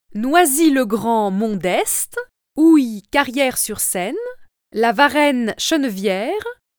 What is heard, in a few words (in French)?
voix rer a